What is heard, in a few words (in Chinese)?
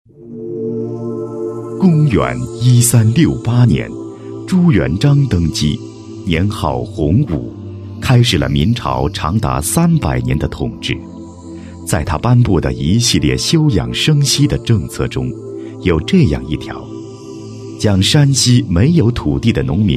男 历史 纪录片; 历史朱元璋